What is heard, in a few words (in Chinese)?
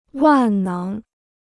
"万能", Chinese